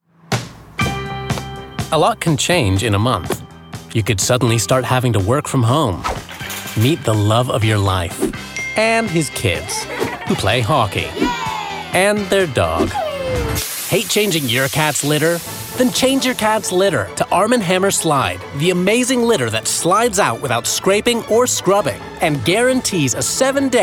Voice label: commercial